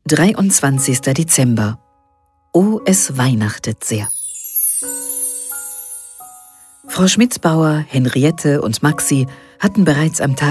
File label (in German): Hoerbuch